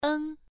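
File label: "eng" Mandarin, first tone, pronunciation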